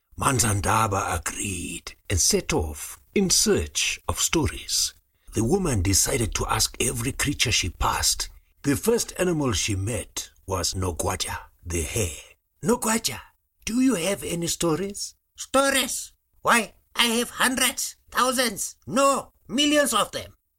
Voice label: African Tale Sample